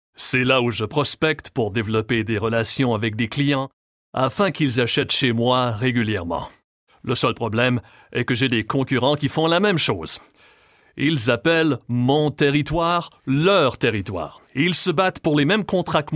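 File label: Canadian French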